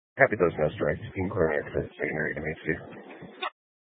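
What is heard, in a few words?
Sheriff Dispatch, scanner audio recording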